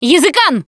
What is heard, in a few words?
warn smoker, russian; Left 4 Dead 2; Producer; Survivor Voice